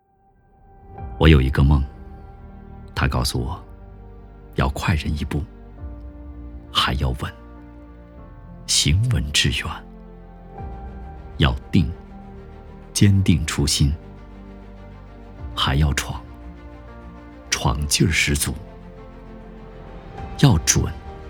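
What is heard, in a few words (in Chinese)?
广告（励志风格）; 我有一个梦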